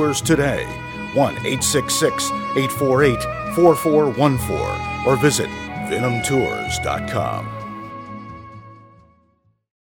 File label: Commercial Travel France; voiceovers